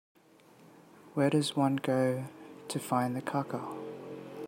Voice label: voice message